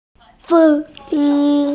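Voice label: "fei1ji1", Mandarin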